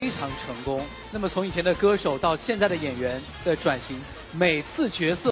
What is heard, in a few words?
interview